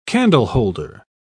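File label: "candleholder", British English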